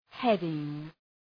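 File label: "heading", English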